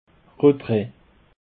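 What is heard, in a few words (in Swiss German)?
"retrait", Alsatian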